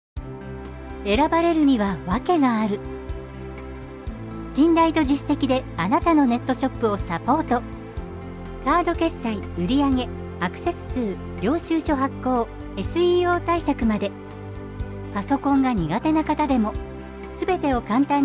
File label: Japanese narration